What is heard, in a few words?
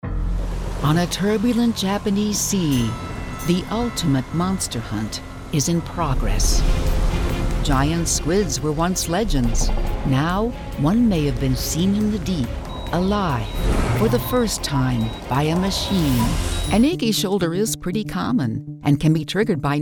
narration demo